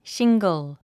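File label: "shingle", English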